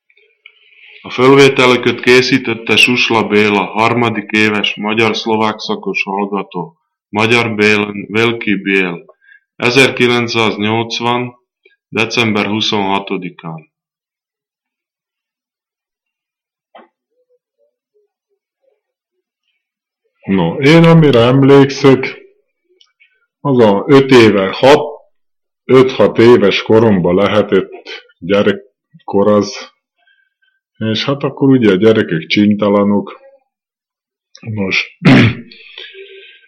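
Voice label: dialect collection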